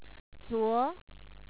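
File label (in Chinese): "zuo", Chinese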